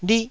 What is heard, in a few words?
"lih4", Taiwanese syllable